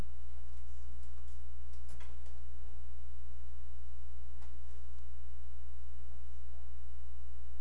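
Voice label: "neck", spoken word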